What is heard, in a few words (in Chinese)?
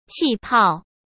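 "气泡", Mandarin Chinese; chinese flashcards; hsk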